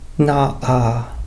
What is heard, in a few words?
"naa'aa", here! take it!, Cahto